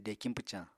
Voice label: "dekiñ pwcañ", Apatani

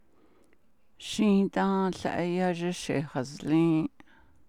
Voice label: navajo; I got a pony last summer I acquired a pony last

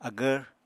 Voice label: "agvr", Apatani

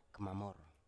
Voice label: "kmamor", Biak

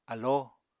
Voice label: "alló", Apatani

